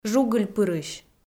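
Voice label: "жугыльпырысь", Komi, female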